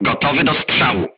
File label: voice message charged, crew tanks polish